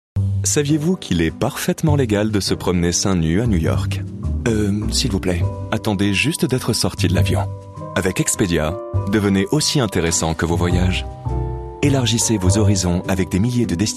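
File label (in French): spot, spots radio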